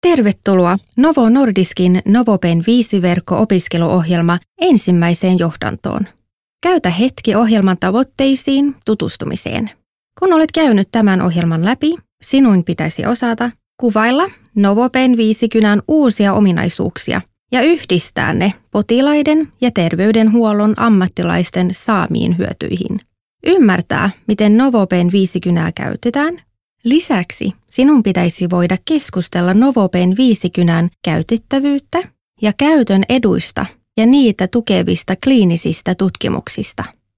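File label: Finnish female, commercial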